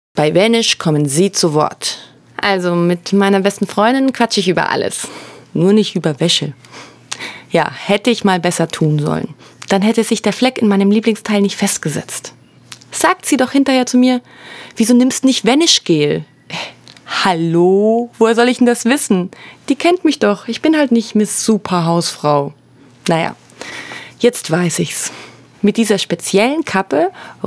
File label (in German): Werbung